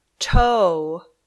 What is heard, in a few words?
"toe", English